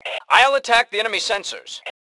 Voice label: Self Attack Sensors, Male; T2 Male 03